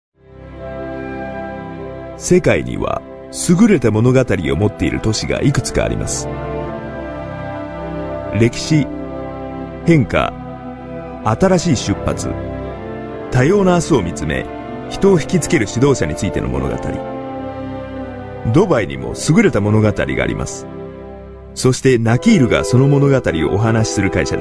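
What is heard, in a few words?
japanese male voice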